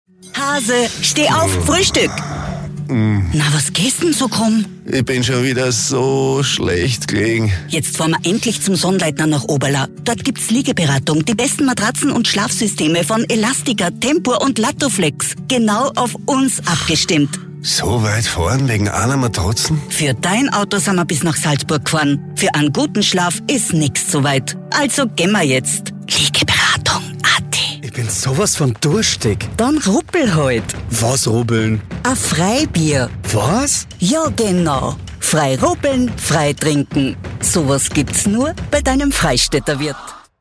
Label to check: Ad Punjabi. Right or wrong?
Wrong